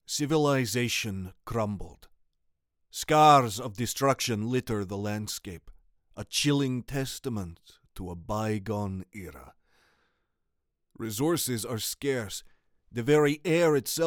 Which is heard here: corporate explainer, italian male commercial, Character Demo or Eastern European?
Eastern European